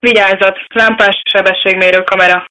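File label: warning, Hungarian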